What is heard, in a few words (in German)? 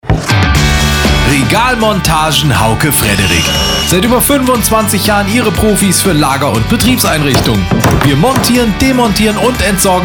radiospot